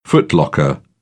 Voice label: "footlocker", British